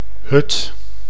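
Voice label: "hut", Dutch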